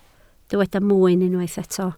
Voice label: "mwyn", Welsh